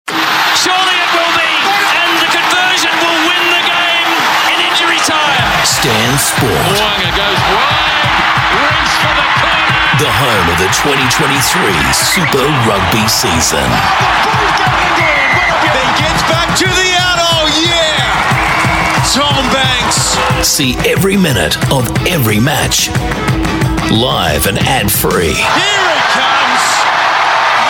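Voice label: AU English, Australian English; Stan Sports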